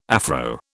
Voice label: "Afro", British